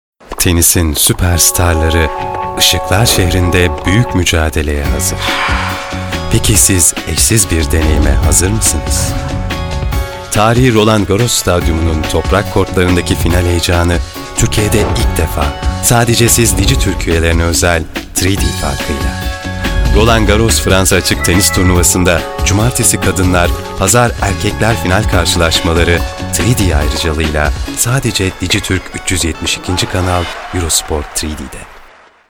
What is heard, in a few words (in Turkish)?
reklam; eurosport 3d